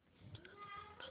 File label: Voice message